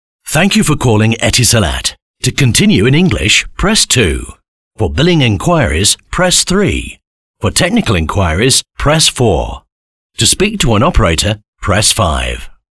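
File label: IVR voiceover; Test VO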